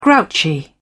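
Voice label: "grouchy", British English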